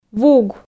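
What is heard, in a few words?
"вуг", Komi, female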